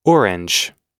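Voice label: "orange", US English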